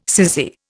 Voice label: "cissy", Indian

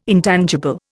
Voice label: "intangible", Indian

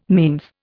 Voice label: "manes", Indian

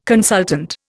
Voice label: "consultant", Indian

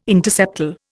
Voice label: "interseptal", Indian

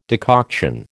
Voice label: "decoction", American

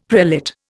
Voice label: "prelate", Indian; Dictionary

